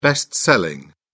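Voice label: "best-selling", British English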